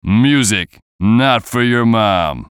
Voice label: VOICE COMICAL; Radio Voice; Blastwave